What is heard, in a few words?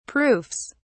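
"proofs", British English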